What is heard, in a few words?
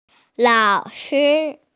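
"lao shi" (teacher), Mandarin Chinese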